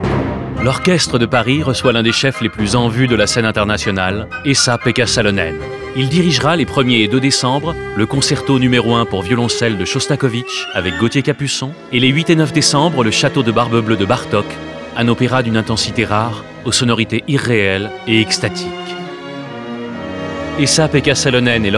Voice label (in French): Spot; Orchestre de Paris